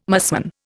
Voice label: "musmon", Indian

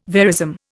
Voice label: "verism", Indian

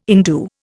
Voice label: "indue", Indian

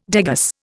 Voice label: "degas", Indian

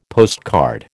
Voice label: "postcard", American

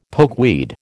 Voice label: "pokeweed", American

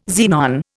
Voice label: "xenon", Indian

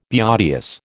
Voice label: "beauteous", American

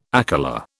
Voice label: "akela", British

